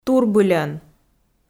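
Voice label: "турбыльӧн", Komi, female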